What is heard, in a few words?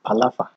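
"palaver", Nigerian Pidgin, male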